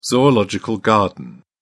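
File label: "zoological garden", British English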